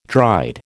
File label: "dried", American